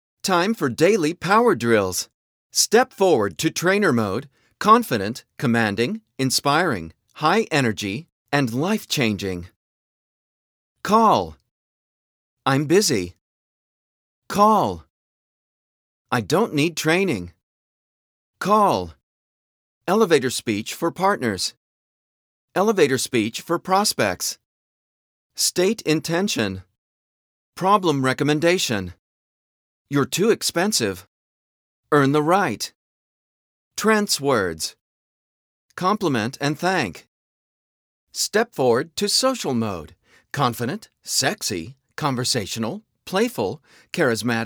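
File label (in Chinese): 外籍男, 语音提示, 英语配音; 行动力训练-语音提示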